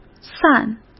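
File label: "散", Mandarin Chinese; hsk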